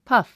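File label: "puff", English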